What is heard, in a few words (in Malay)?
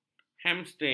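"hamstring", Malay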